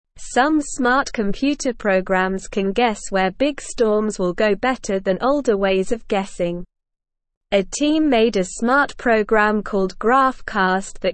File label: Beginner SLOW Reading, English; English Newsroom; Smart Program Guesses Big Storms Better Than Old Ways